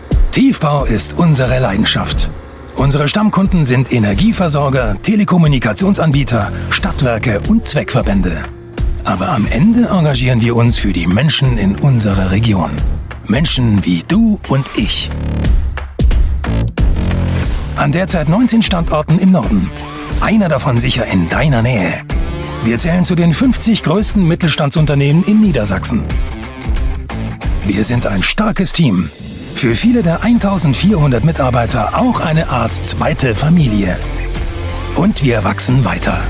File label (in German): Imagefilm; Wilhelm Waehler GmbH